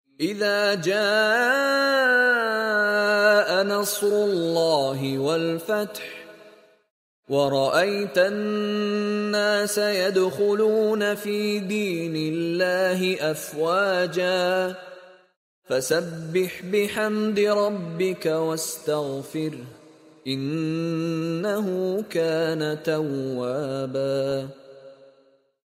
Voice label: ARABIC Recitation; Surah 110 An Nasr